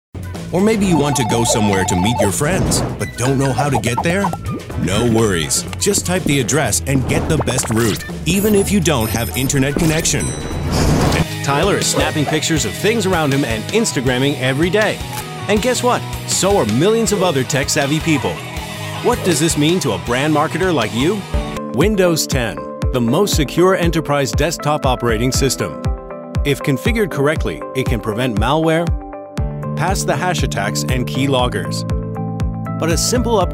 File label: Explainer Video VO